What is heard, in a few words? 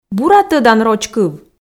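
"бура тӧдан роч кыв", Komi, female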